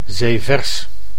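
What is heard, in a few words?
"zeevers", Dutch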